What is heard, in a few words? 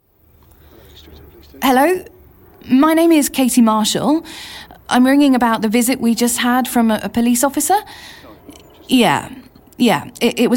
Audio Drama